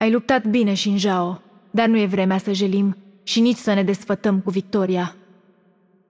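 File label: Romanian game outro; bloom minigame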